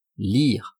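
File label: "lire", French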